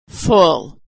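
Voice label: "full", American English; vowel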